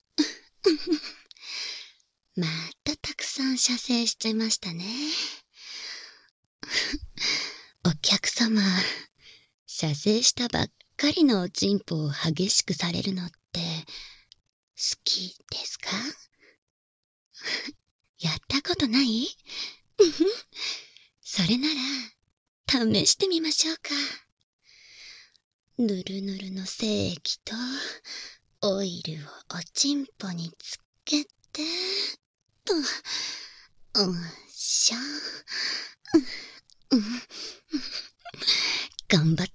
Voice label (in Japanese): 射精後のオイル囁き手コキ